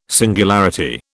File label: "singularity", British